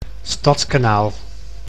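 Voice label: "Stadskanaal", Dutch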